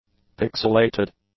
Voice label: "pixilated", english; adjectives